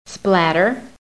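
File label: "splatter", American English; PHONETIC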